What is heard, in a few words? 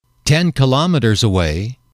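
"ten kilometers away", English, male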